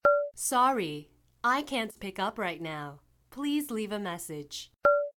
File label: recorder prompt en; China Telecom